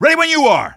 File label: ready when you are, male; Half-Life 2